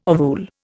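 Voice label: "ovule", Indian English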